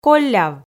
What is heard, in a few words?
"колляв", Komi